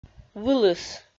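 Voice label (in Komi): "вылыс", Komi, female; audio dict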